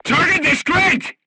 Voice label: voice message art command oneself, crew tanks vietnamese